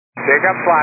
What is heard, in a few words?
122 725MHz, scanner radio